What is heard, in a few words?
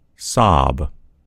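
"sob", American English; gcompris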